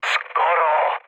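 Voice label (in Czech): Czech bomb failure, mood low; speech; War Thunder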